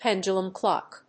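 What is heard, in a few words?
"Pendulum clock", English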